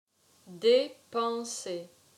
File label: "Depenser", french